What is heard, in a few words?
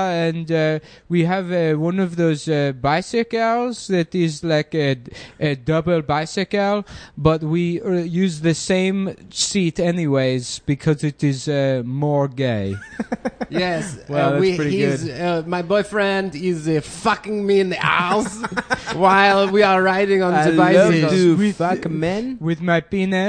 French Characters; podcast; Cum Town